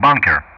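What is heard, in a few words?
"bunker", English; Ka-50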